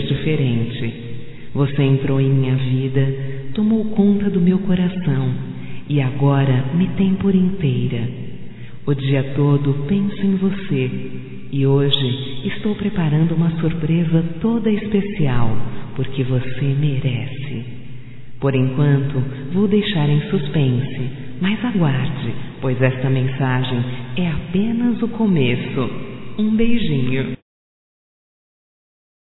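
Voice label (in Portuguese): voz, Aniversário romântico, female, Telemensagens; Telemensagens 2017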